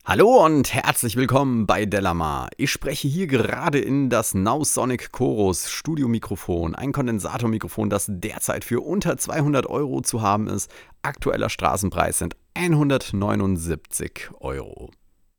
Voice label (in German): chorus sprache m; nowsonic